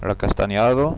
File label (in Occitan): "castanh", Gascon